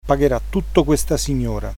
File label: pay, italian